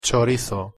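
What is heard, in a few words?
"chorizo", Spanish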